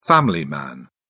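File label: "family man", English, British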